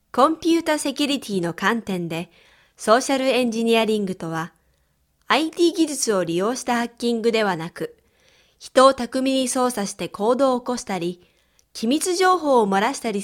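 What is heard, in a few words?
Japanese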